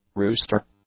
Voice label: "rooster", English; nouns